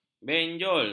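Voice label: "benjol", Malay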